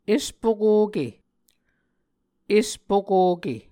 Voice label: "espokoke", Muscogee

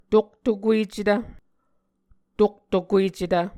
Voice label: "toktokuecetv", Muscogee

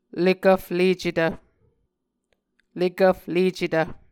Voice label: "lekvflecetv", Muscogee

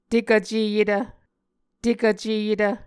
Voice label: "tekvceyetv", Muscogee

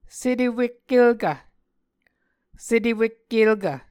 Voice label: "setewekkelkv", Muscogee; Mvskoke Words with Repeated Consonants; A Dictionary of Creek/Muskogee; SIL International

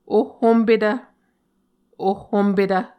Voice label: "ohhompetv", Muscogee; A Dictionary of Creek/Muskogee; SIL International

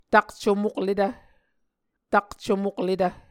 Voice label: "takcomokletv", Muscogee

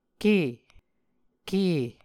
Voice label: "ke", Muscogee

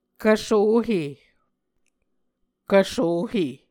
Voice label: "kvsohe", Muscogee

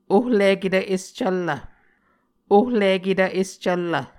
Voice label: "ohliketv escvllv", Muscogee

